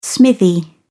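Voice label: "smithy", British English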